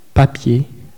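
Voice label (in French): "papier", French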